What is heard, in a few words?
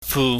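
"fu", Cantonese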